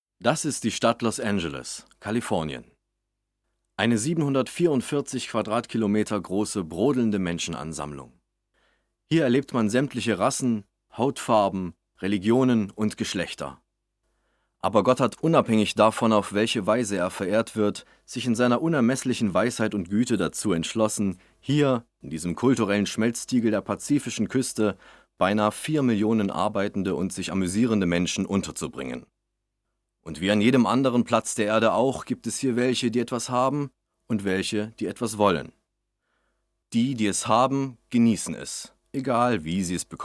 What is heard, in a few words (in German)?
Doku; Eröffnungsmonolog aus "Dragnet", Synchronregie: Irina von Bentheim; Demo Dokumentation 2